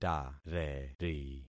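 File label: "tareri", concatenated syllables; Affixing experiment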